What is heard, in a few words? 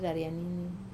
"raria nini", today, Wamesa